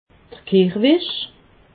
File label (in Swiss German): "kehrwesch", alsace